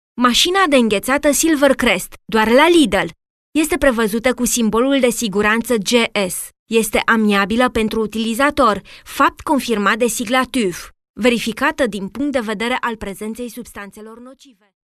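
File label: Romanian, female, explainer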